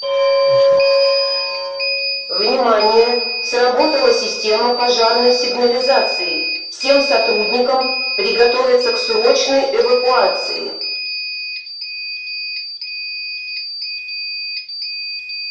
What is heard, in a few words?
russia vox alarm, fire alarm; garrysmod